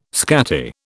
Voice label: "scatty", British